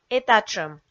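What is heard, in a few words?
"этатшӧм", Komi, female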